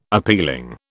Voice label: "appealing", British

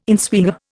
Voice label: "inswinger", Indian

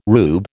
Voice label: "rube", American

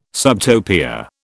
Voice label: "subtopia", British

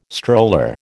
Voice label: "stroller", American

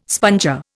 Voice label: "sponger", Indian

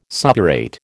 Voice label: "suppurate", American

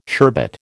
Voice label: "sherbet", American

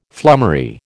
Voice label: "flummery", American

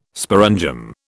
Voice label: "sporangium", British

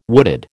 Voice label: "wooded", American

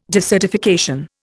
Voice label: "desertification", Indian

